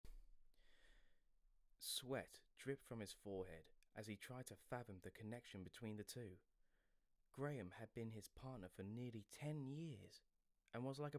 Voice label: narrator voice